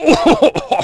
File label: fall, negative; Splashdown; XBOX